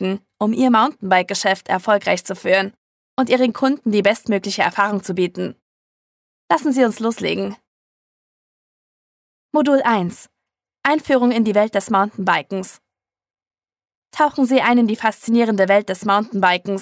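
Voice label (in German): neue Hoerprobe E-Learning, Sprecherin; e-Learning / Tutorials; Voice-Over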